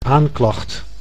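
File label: "aanklacht", Dutch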